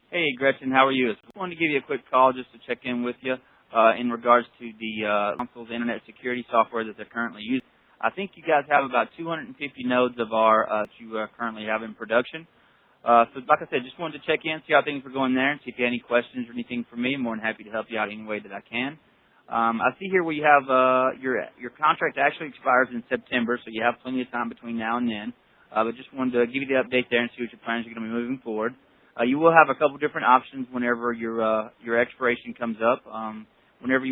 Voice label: Voicemail Sample Rambles; Factor 8